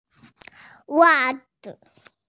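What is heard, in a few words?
"wa zi" Chinese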